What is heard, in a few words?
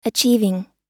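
"achieving", US English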